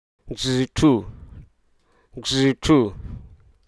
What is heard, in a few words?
hruso, engagement